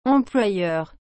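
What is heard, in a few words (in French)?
"employeur", French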